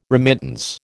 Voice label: "remittance", American